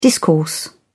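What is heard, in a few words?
"discourse", English, British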